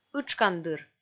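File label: "ытшкандыр", Komi, female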